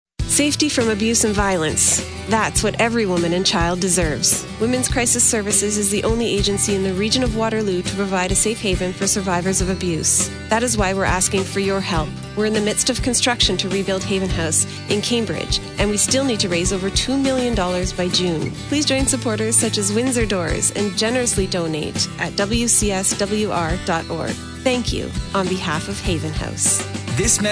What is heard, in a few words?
Radio; Winser Doors